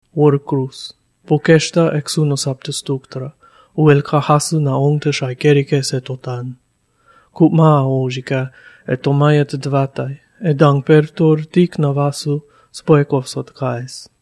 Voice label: udhr vulcan